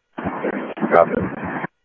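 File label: Mesa police department, scanner audio trunk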